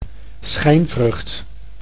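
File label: "schijnvrucht", Dutch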